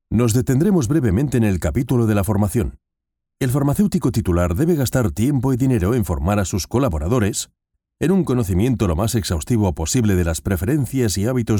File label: spanish male; dictor-sounds